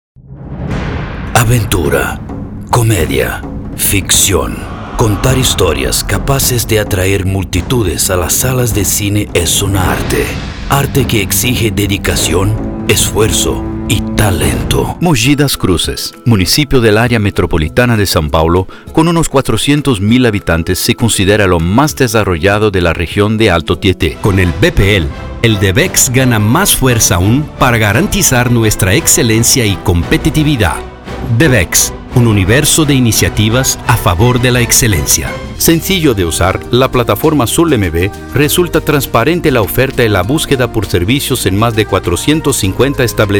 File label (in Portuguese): Espanhol